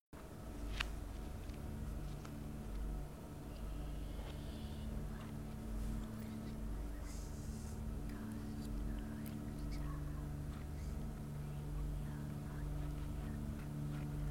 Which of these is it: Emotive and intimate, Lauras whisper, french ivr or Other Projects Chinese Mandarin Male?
Lauras whisper